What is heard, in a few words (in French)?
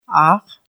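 "sel", Armenian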